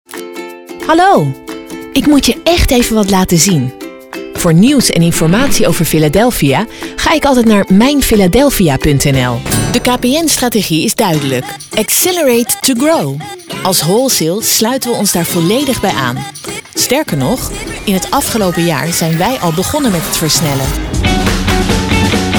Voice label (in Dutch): bedrijfsfilms; podcast